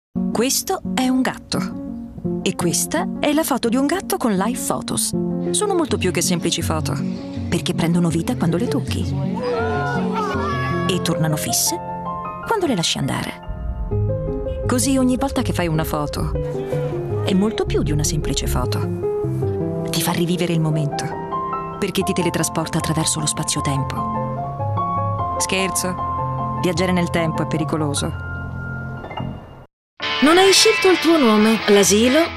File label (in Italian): voce ironica e vivace; demo spot